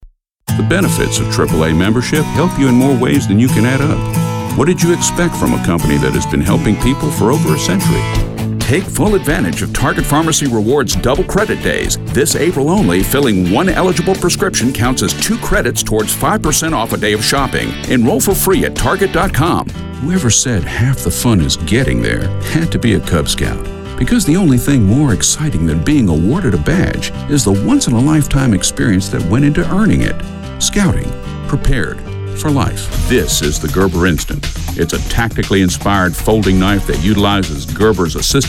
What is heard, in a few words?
Comm Demo